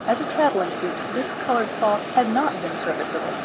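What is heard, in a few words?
1 speaker different Noise 4 channel; openMHA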